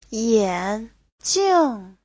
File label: "yan3jing4", Chinese